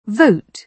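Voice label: "vote", British English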